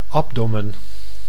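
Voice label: "abdomen", Dutch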